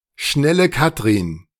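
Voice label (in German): "Schnelle Kathrin", German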